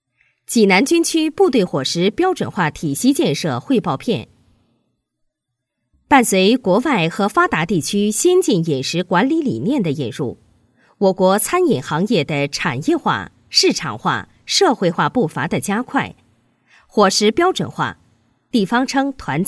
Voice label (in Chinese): 女 汇报 叙述 新闻 讲述; 济南军区部队伙食标准化体系建设